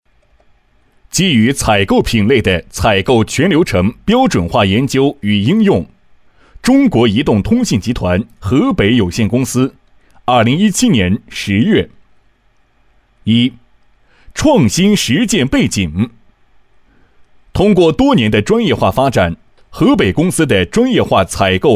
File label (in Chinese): 男 移动河北采购管理（正式）